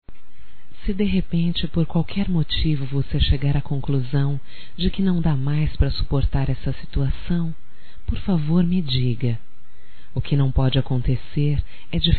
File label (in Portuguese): DEIXE SEU CORAÇÃO FALAR - F; Românticas